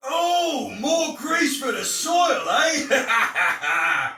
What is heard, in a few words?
See Player Shout, Soldier Male; Dialog; Barks; Battle; Sinecure